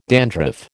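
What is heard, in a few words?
"dandruff", American English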